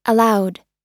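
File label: "allowed", American English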